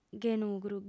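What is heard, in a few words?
"ghenugru", Sardinian, female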